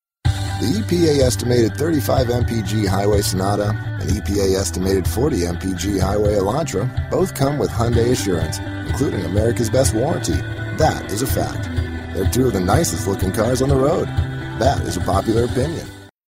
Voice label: Folksy